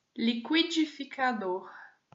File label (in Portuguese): "liquidificador", Portuguese